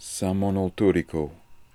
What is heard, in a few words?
"Samonoltooriko", pronounciations; Fourth Way; Beelzebub